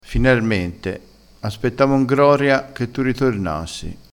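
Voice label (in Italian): "finarmente", vocabolario dialettale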